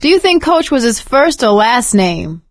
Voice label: survivor mourn, survivor voice; Left 4 Dead 2; producer